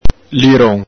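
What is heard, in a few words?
"liron", Venetian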